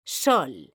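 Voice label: "sol", Spanish, female; mekanta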